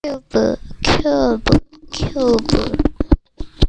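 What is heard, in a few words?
"cube", spoken word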